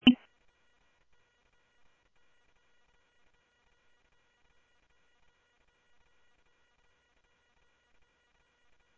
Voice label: Mesa Simulcast police; jumper